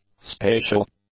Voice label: "spatial", english; adjectives

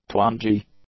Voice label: "twangy", English; adjectives

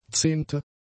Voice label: "zehnte", German; adjectives

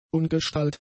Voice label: "ungestalt", German; adjectives

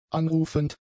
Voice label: "anrufend", German; adjectives

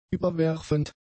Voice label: "überwerfend", German; adjectives

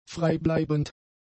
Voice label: "freibleibend", German; adjectives

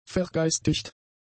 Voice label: "vergeistigt", German; adjectives

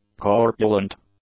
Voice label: "corpulent", English; adjectives

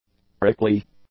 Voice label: "prickly", english; adjectives

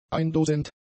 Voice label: "eindosend", German; adjectives

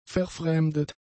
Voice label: "verfremdet", German; adjectives

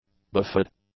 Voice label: "bifid", English; adjectives

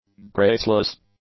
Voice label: "graceless", english; adjectives

